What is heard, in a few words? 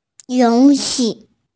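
"you2 xi4", Mandarin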